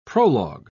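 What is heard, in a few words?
"prolog", British English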